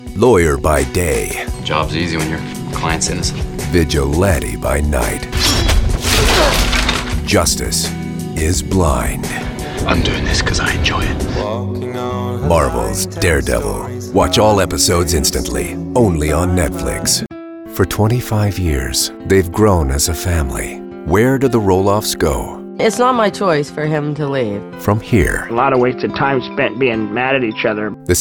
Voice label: promo